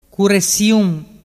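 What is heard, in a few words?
"curessiun", Vernazzese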